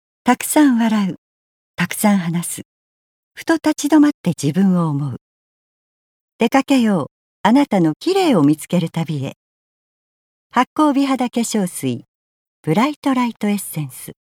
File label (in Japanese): コスメCM